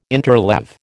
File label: "interleave", American